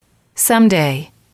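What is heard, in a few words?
"someday", English, female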